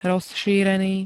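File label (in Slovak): "rozšírený", Slovak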